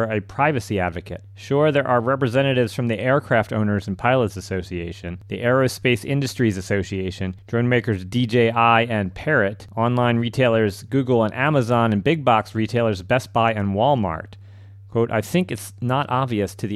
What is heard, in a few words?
Radio News; Drone Registry Panel; Wave Farm